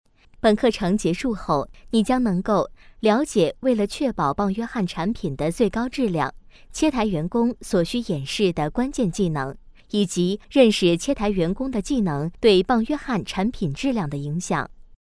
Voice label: locutora china chinese mandarin voiceover; locutora de China

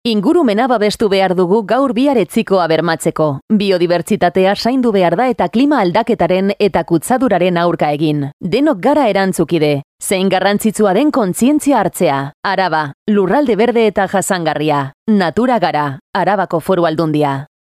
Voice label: locutora euskera basque voiceover

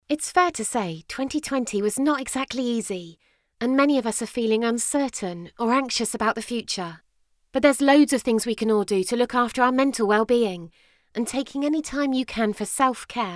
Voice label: locutora joven young english voiceover

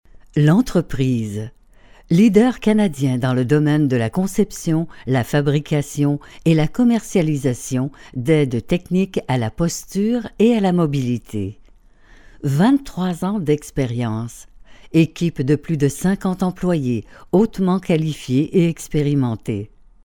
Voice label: french canadian voiceover locutora canada; French Canadian female voice over

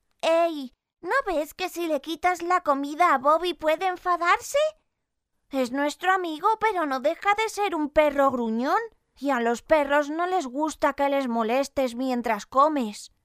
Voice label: spanish girl voice talent; Kid voice over talent

